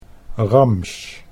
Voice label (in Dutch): "ramsj", Dutch